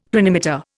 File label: "planimeter", Indian; Dictionary